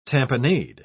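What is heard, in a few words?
"tamponade", British English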